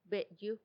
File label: "beyu", flea, macuiltianguis; pulga